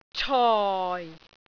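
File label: "coi4", Cantonese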